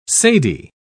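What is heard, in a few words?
"cedi", British English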